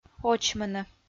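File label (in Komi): "очмыны", Komi, female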